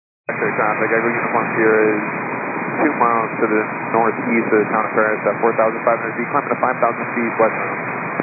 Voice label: 122 925MHz, scanner radio